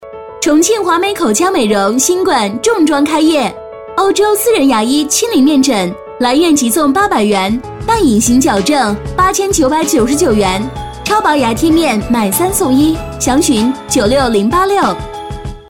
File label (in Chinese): 女 广告; 重庆; 华美